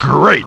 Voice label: "great", voice